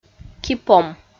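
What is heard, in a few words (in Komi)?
"кипом", Komi, female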